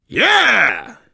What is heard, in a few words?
reaction positive, voice, biker; Left 4 Dead 2; survivor